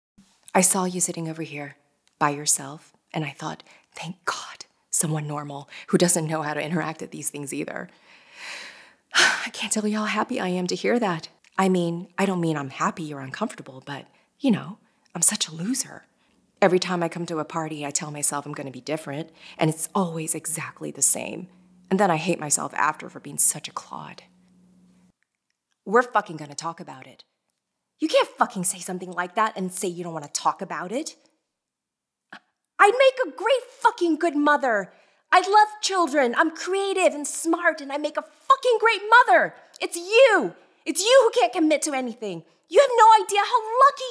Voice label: Natural Voice